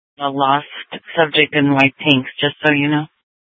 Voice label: Sheriff, scanner P25; Maricopa County (P25) - Thompson Peak; Shrf Lakes

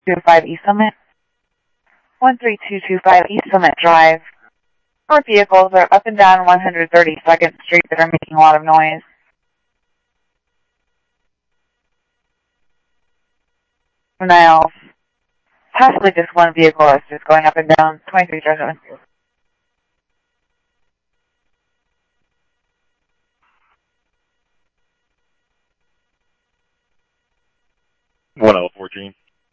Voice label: Police, scanner; H-Scottsdale; SCTSDLE PD - PD2; ScottsdalePolice

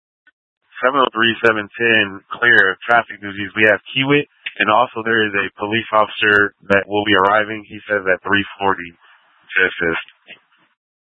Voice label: Light Rail Emergency, scanner; Valley Metro Public Transit System; Channel 6; Simulcast F- Tempe

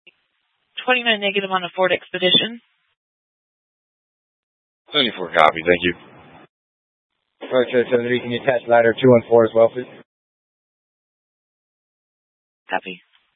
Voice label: Dispatch, scanner

